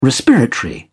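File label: "respiratory", British English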